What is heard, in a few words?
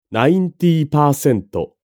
japanese pronunciation Ninety Percent